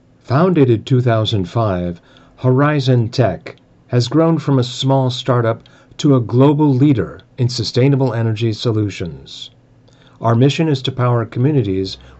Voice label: Company Overviews, Voice Over Narration

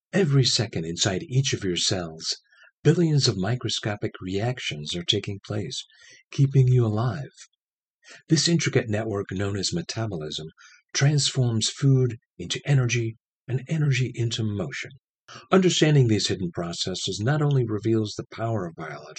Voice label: excited, Voice Over Narration; Scientific & Educational - Metabolism

